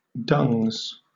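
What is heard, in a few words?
"dungs", British English; pronunciation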